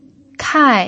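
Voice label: "kai" fourth tone, pinyin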